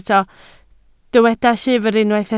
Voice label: "llyfr", Welsh

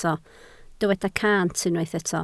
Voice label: "cant", Welsh

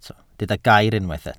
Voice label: "gair", Welsh

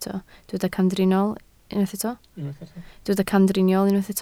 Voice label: "camdriniol", Welsh

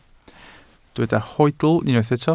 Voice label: "hoedl", Welsh

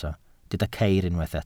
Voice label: "ceir", Welsh

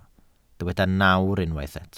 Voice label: "nawr", Welsh

